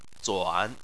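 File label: "zuan" third tone, chinese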